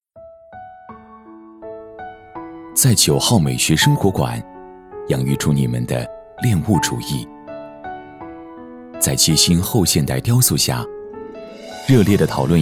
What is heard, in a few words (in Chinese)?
男 专题 温暖旁白; 十里春风